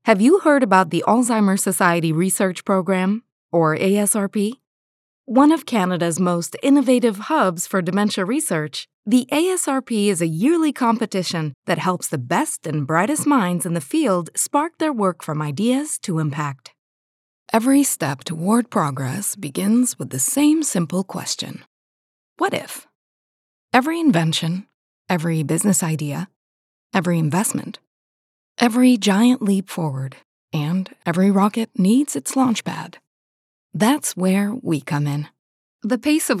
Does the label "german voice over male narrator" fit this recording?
No